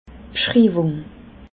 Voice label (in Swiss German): "bschriiwung", alsace